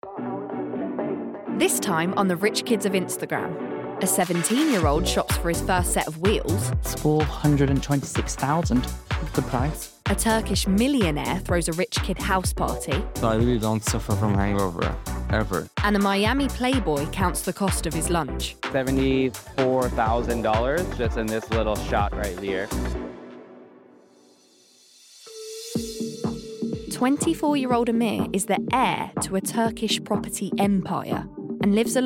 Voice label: Documentary

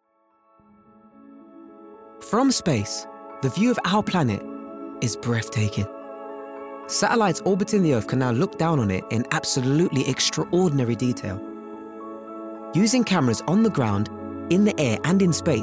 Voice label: Documentary

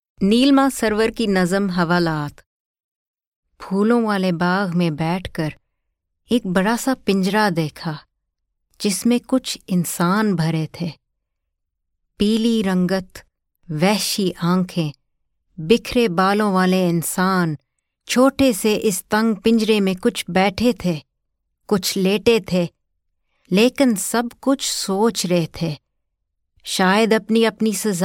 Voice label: Urdu

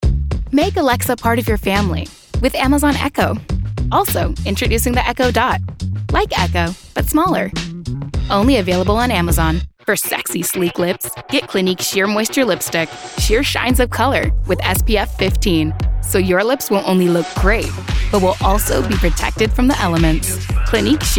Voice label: commercial

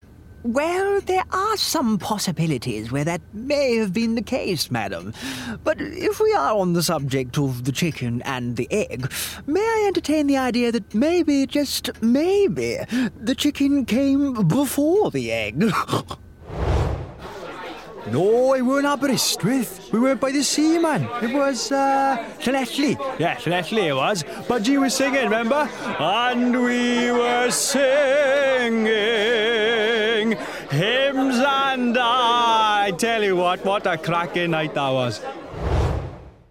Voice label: Animation

